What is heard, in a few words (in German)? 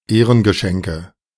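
"Ehrengeschenke", German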